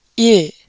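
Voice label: Korean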